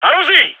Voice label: radio lets go; vo; gign